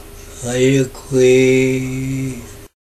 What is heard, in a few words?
yurok language phrase